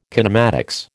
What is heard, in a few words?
"kinematics", American